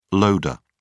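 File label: "loader", British English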